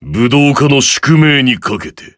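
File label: victory, Japanese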